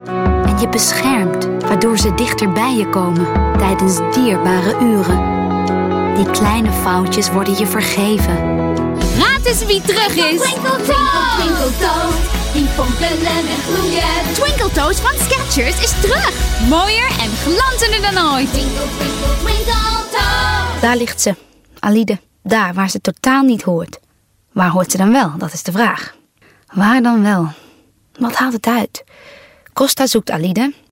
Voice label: Dutch

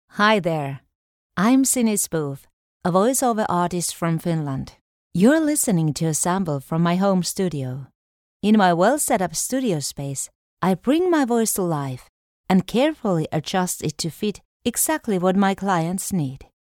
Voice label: Spoof english, home studio

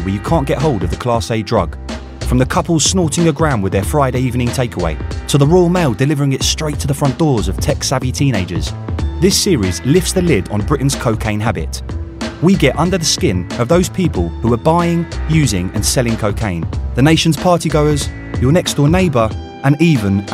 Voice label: British Asian

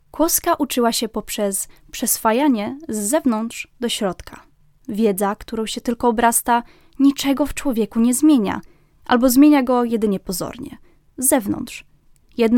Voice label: Polish

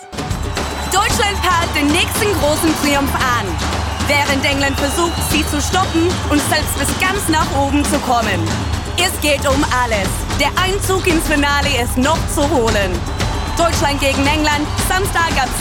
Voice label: Commercial German Accent

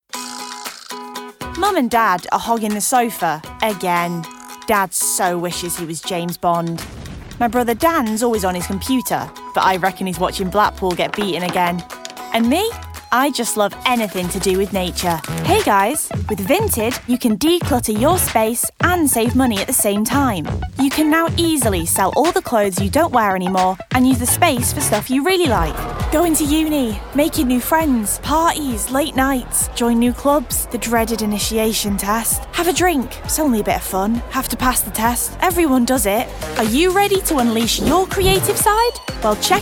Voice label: Commercial; regional accents

